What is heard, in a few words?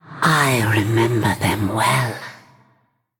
Female Ghost Mirror Greetings; en